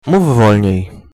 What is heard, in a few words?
slowly, polish